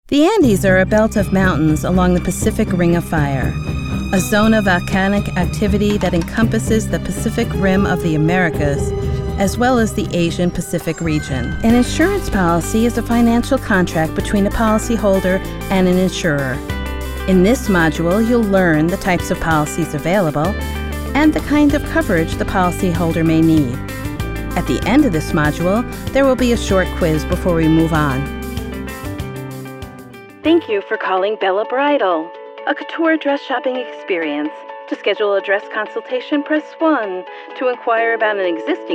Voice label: NARRATION

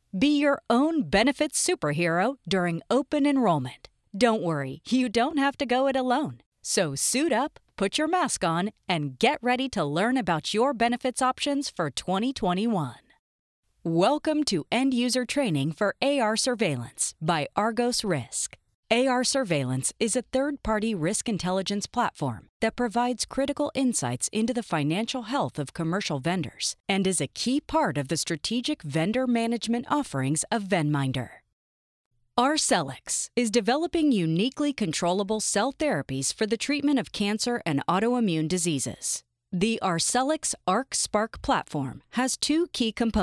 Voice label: Elearning

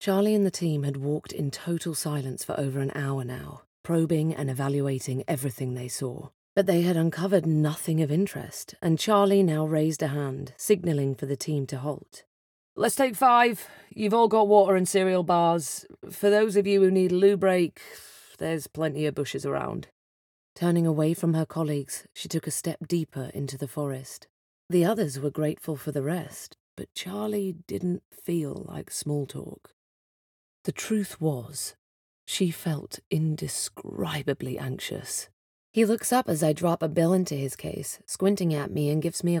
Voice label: Audiobook Reel

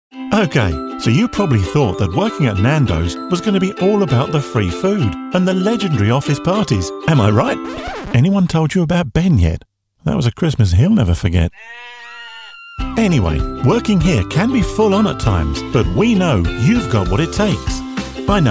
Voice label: eLearning

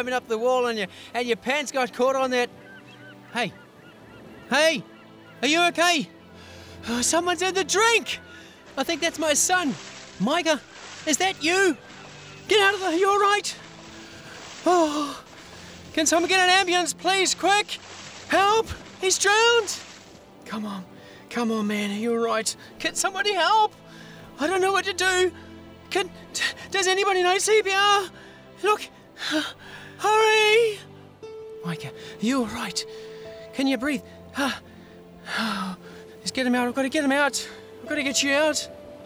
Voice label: Dramatic

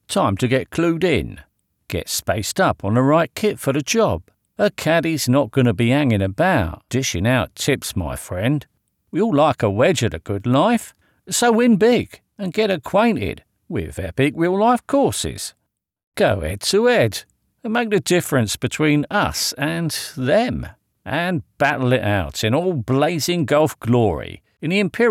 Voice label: Dry Read British Cockney

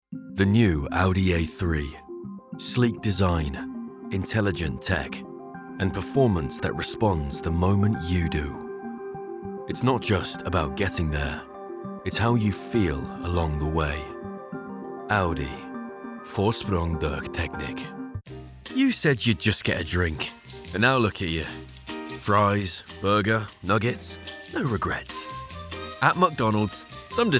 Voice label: Commercial VO Reel

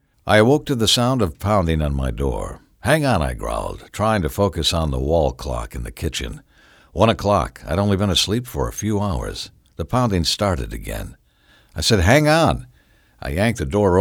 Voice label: audiobook

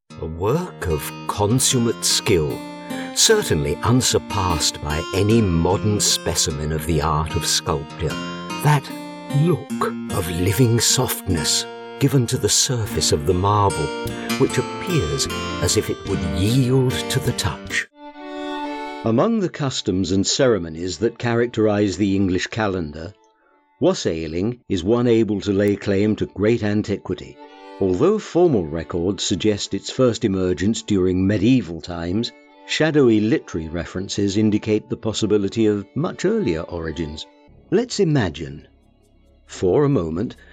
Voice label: documentary

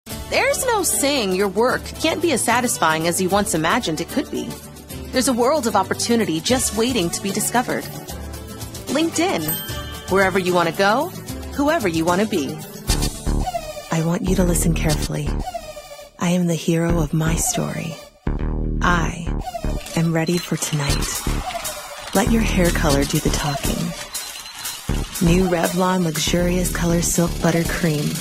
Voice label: commercial voiceover

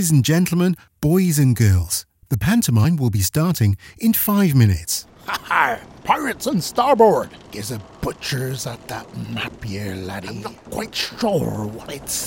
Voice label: Childrens TV promo Intro and character voice

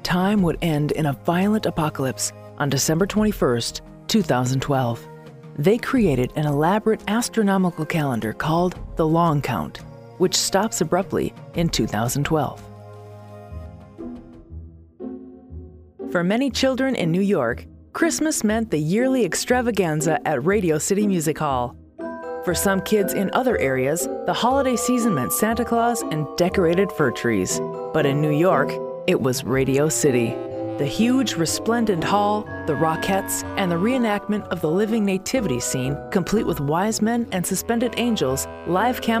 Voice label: Narrative

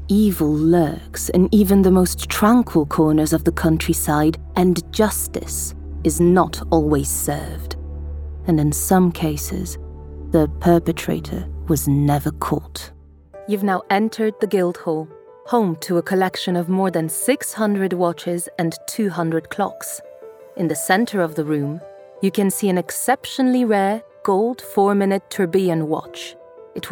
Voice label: Narration English

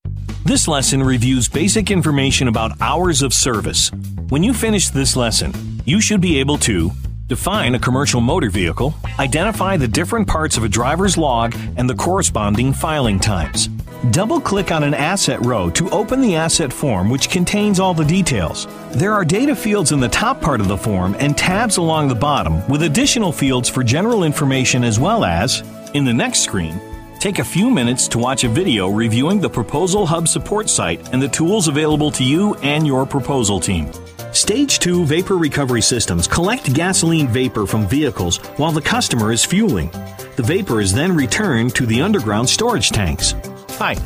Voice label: Narration

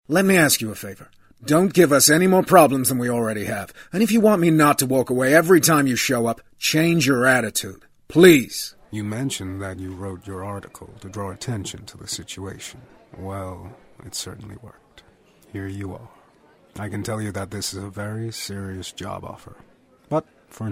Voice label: American accents

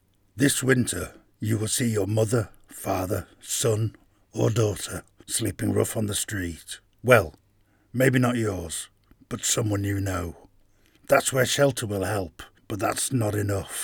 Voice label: shelter charity spot

